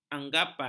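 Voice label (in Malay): "anggapan", Malay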